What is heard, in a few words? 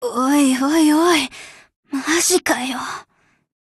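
Japanese, Voice; BREAK; GF Alarm; Minosu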